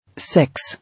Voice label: "Six", English

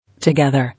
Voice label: "Together", English

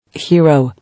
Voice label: "Hero", English

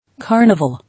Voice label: "Carnival", English

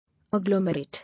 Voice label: "Agglomerate", English

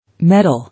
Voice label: "Medal", English

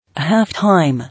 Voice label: "Half-time", English

